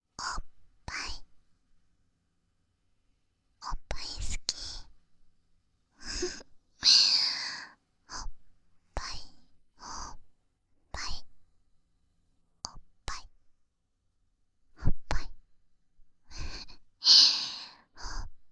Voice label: 囁き淫語連呼; SEなし

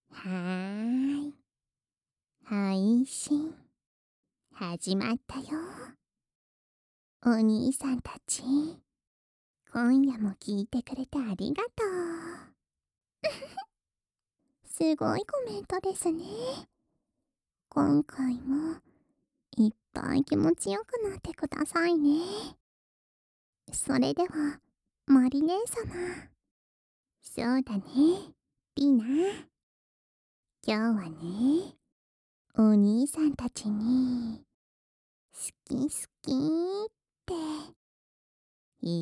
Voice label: 贅沢な囁き耳舐めハーレムASMR; えちち非合法♪贅沢な囁き耳舐めハーレムASMR配信

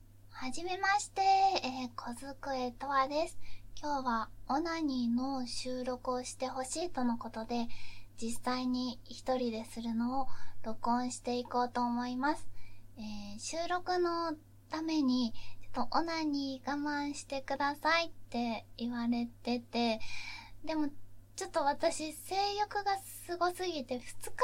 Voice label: オナニーしながら自己紹介

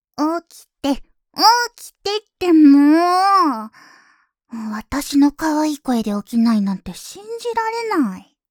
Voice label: アラームボイス

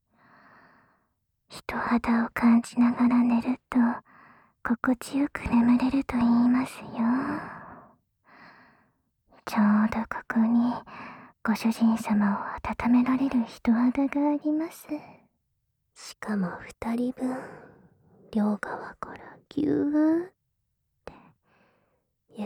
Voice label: おやすみエルフの添い寝ささやき; おやすみエルフの添い寝ささやき(SECut); 効果音カット版

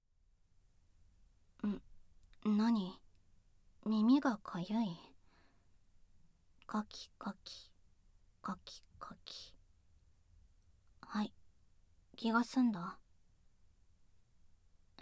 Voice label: 耳かきボイス ダウナー彼女の普通の耳かき, ASMR; 08 おまけトラック耳かきボイス ダウナー彼女の普通の耳かき; 効果音なしver

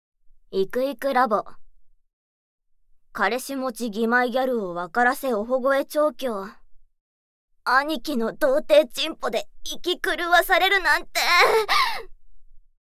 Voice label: タイトルコール, ASMR

